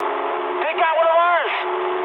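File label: aircraft destroyed mood high, speech English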